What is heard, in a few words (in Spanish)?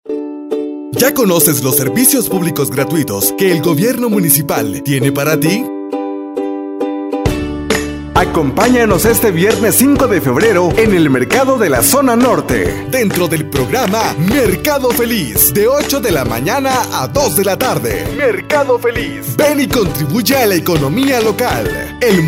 Spot; Mercado Feliz en la Zona Norte